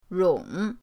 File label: "rong3", pinyin